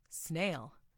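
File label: "snail", English; FoodChain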